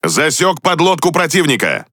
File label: Speech Detection Enemy Sub, RU; World Of Warships